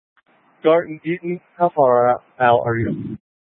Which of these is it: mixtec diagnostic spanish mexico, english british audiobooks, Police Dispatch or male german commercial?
Police Dispatch